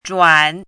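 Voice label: "zhuan3", Mandarin pinyin syllable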